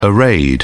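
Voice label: "arrayed", British English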